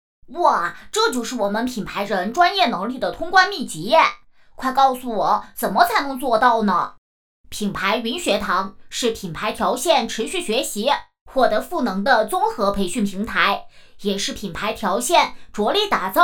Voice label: 女 男童 MG动画; 机械太保品牌云学堂MG动画

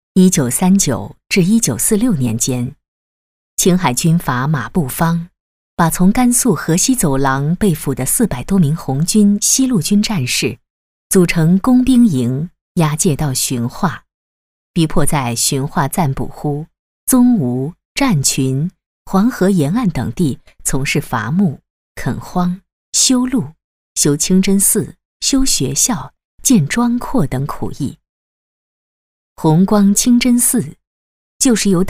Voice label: 女 纪录片 建筑介绍

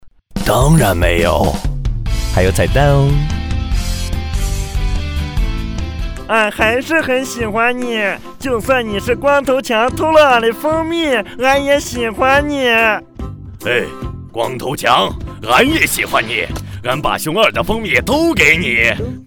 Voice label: 男 模仿; 熊大熊二

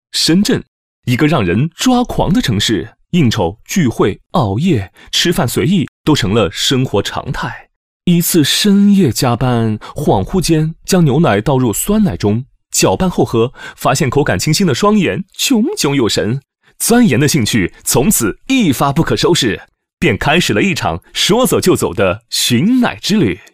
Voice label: 男 MG动画; 飞碟说

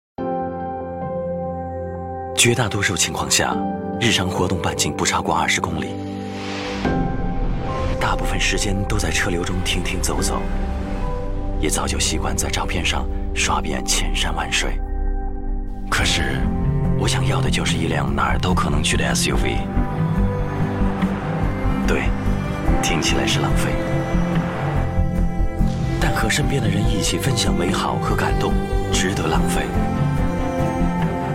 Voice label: 男 汽车广告, 国语男声配音

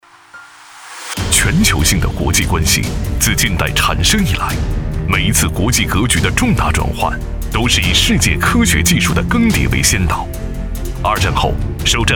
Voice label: 男 军事专题

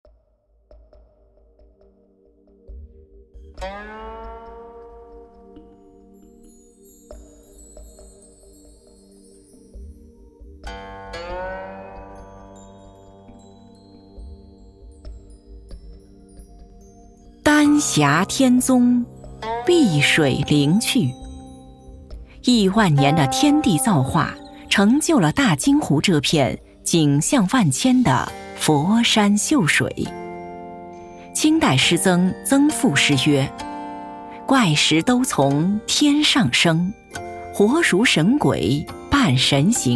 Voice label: 记录片; 大金湖

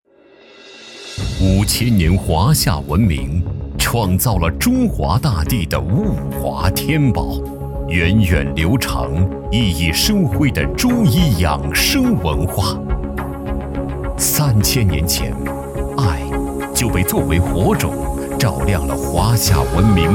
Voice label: 男 电视药品

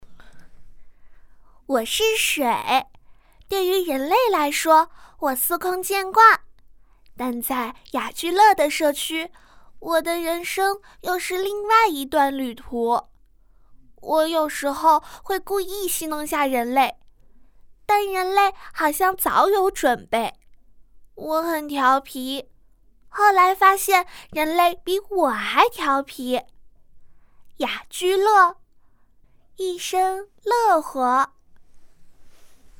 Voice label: 女 角色 模仿童声

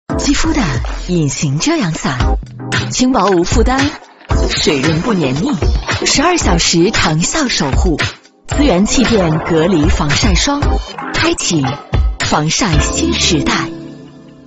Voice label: 女 广告 防晒霜; 美资源防晒霜

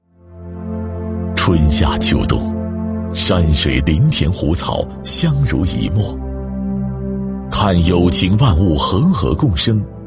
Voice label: 男, 纪录片; CCTV10--生命之歌

